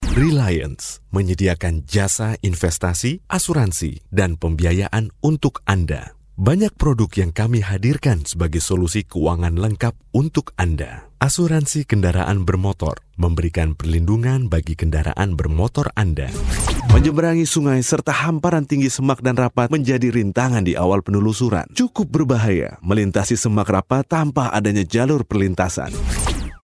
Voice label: indonesian commercial, inviting